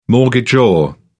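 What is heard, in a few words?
"mortgagor", British English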